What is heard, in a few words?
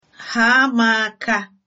leave me alone, igbo